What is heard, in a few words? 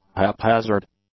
"haphazard", English; adjectives